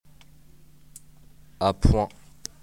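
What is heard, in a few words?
"medium rare", French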